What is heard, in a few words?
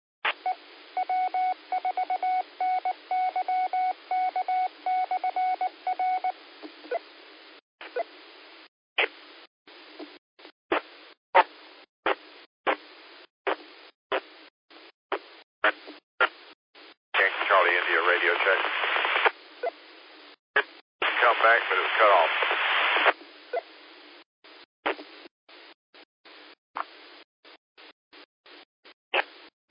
qso rec simplex logic